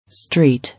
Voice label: "Street", English